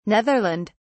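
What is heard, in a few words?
"Netherland", British English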